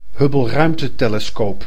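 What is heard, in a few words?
"Hubble-ruimtetelescoop", Dutch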